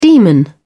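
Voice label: "demon", British English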